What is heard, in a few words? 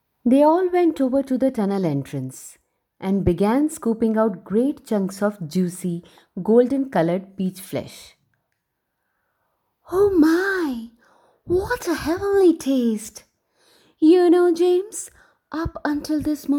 animation, English